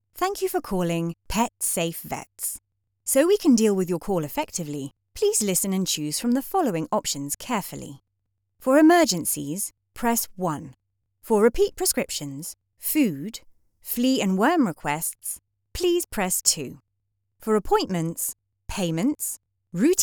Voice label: English British IVR; voice actors

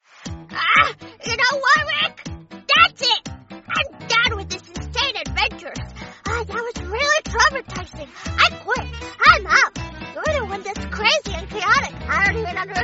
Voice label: english american animation

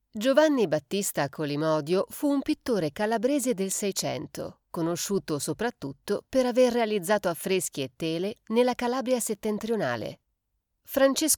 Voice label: italian audio guides

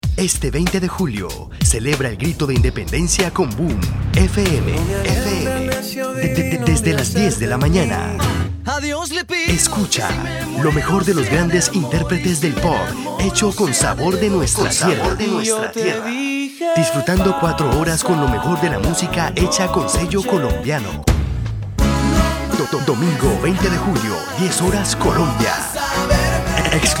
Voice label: spanish latin american radio imaging

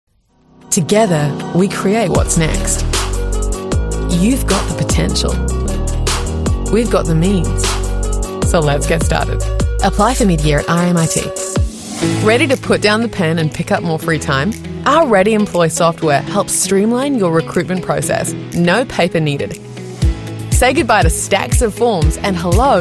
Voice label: English Australian commercial

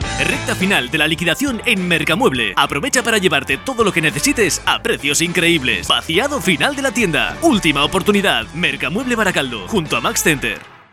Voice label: Spanish corporate videos

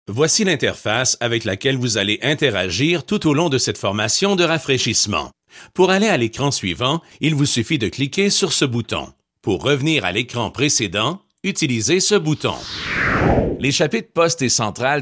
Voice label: french canadian e learning

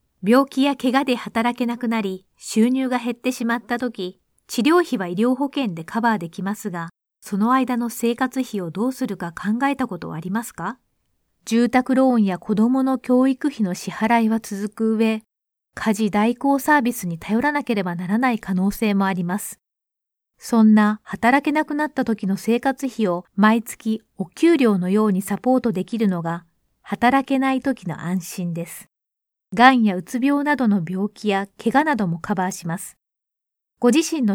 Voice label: japanese audiobooks

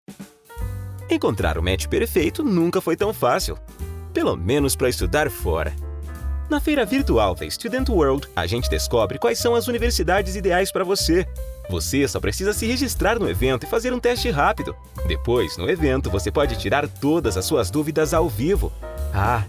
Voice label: portuguese brazilian explainer videos

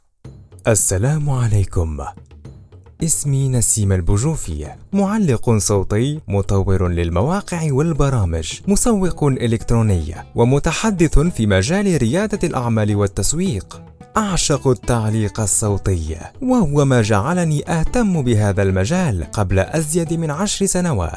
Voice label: arabic commercial

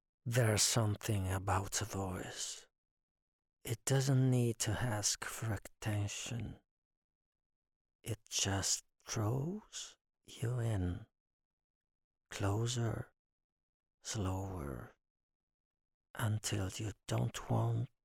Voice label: english narration

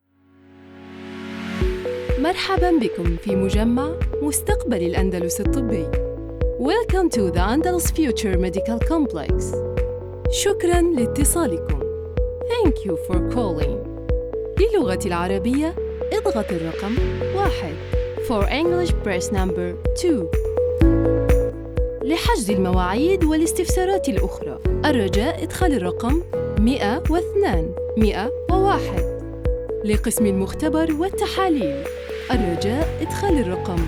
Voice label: arabic ivr, arabic voice actors

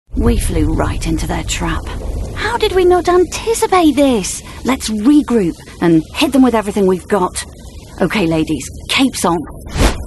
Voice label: english british video games

